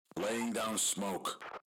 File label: smoke, radio